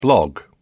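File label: "blog", British English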